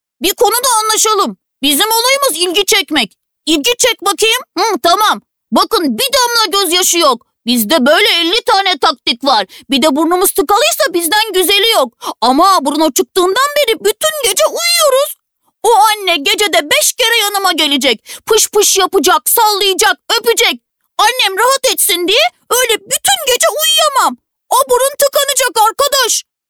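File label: Turkish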